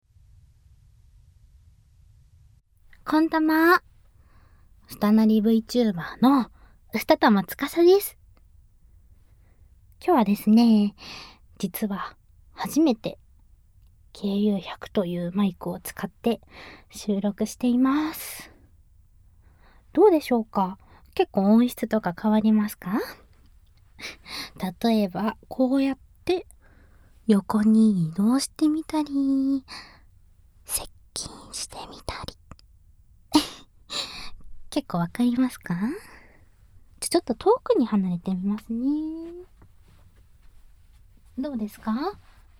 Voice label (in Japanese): オーディオコメンタリー